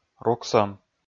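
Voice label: "руксӧм", Komi-Zyrian, male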